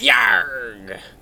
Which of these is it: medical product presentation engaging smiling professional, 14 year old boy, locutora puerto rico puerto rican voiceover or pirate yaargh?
pirate yaargh